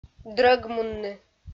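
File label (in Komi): "дрӧгмунны", Komi, female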